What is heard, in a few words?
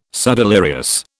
"subdelirious", British